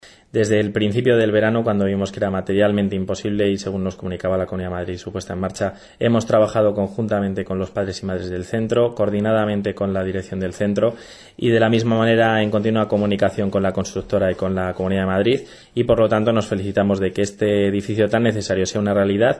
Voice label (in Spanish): noticias